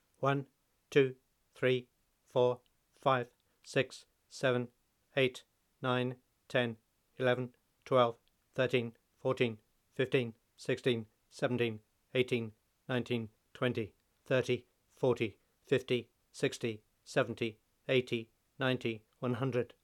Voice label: Numbers English male

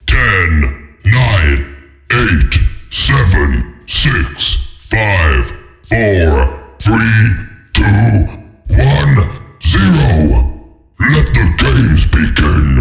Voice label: Announcer Countdown

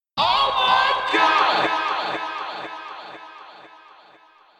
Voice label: "Oh My God" Vocals With Echo

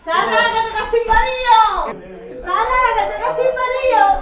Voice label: Woman Loud Speaking

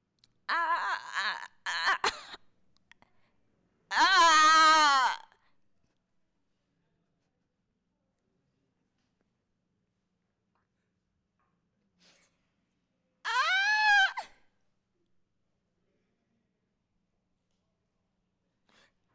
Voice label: Pained Ouch My Leg

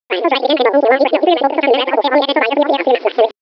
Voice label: Cartoon Gibberish Over Phone

